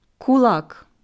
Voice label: "Kulak", ukrainische Aussprache